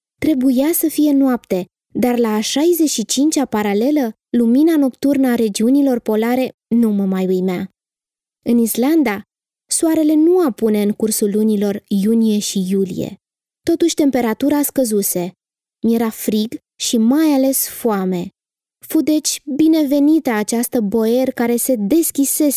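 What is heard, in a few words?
Romanian female audiobook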